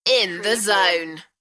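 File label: British female In the zone; beanstalk audio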